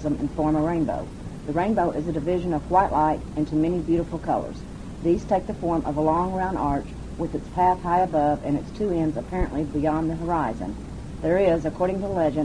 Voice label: kentucky, dialects